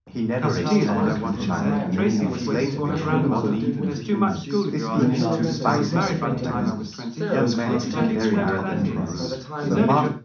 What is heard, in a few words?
male, 380 ms, 50 cm, mix; SiSEC11; underdetermined